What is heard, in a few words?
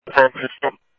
Mesa Simulcast police; jumper